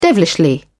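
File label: "devilishly", British English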